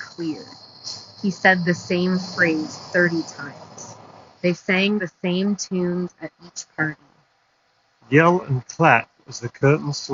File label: Street microphone sample; Sony WH-ULT900N ULT WEAR